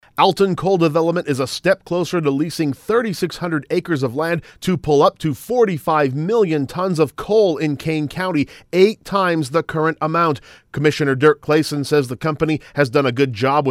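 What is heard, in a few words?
voice public service announcement; BRYCE CANYON COAL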